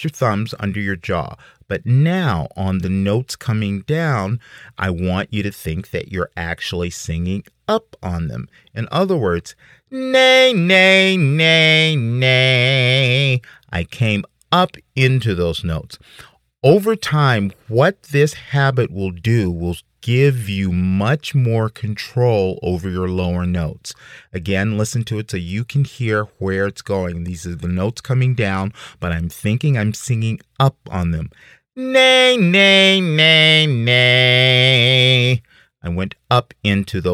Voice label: instructions for exercise male